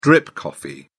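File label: "drip coffee", British English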